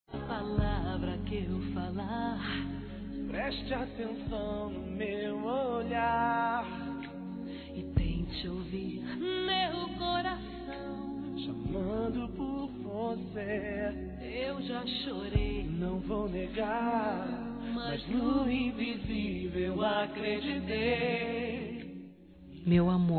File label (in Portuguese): NATAL ROMANTICO F